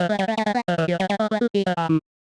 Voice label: medium clear jolly; voice archetypes; voxel audio preview